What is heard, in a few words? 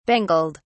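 "bangled", British English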